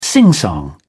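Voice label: "singsong", British English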